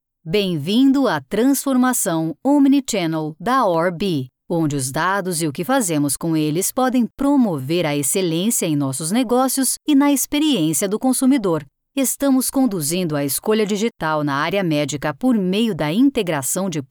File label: portuguese brazilian e-learning